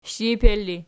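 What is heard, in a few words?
shiper ergative, Archi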